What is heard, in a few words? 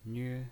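"nve" first tone, pinyin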